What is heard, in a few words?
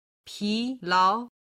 "pilao", Chinese